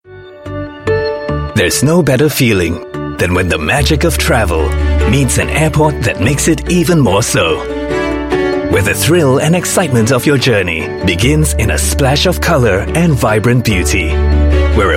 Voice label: Asian English, English Singaporean Male; Changi Airport T4